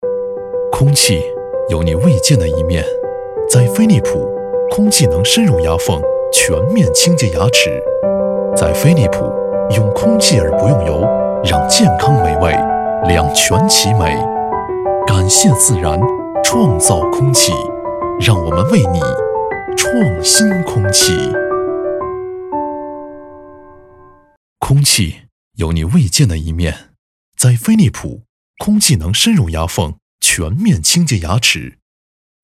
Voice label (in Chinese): 男 商业广告 空气广告; 飞利浦空气广告